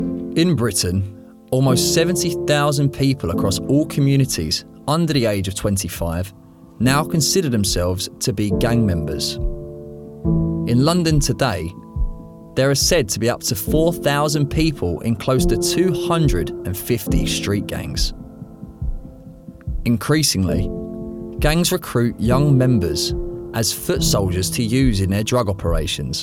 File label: Documentary